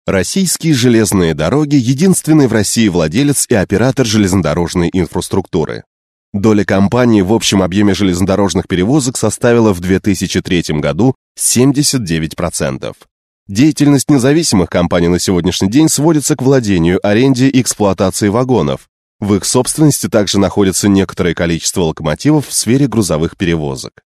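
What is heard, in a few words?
Russian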